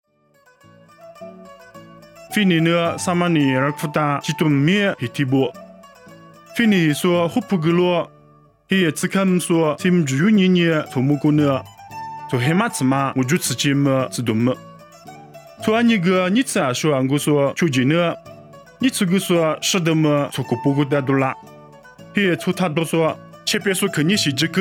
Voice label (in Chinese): 凉山彝语; 以色列撒玛利亚